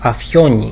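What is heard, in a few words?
"afioni", Greek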